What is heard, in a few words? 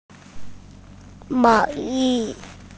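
"sweater", Mandarin Chinese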